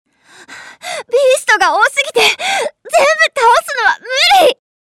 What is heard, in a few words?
game voice